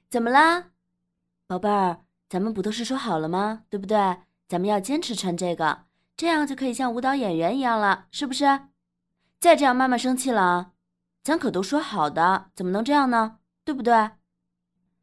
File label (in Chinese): 年轻妈妈